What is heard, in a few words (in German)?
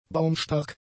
"baumstark", German; adjectives